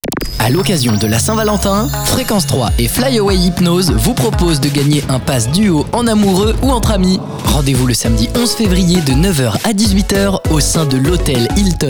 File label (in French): PROMO ANTENNE